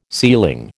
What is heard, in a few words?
"ceiling", American